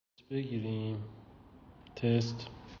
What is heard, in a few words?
Voice message